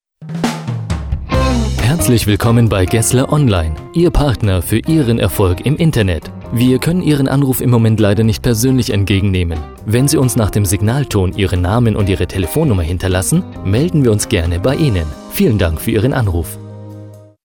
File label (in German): Telefonansage; Gessler-Online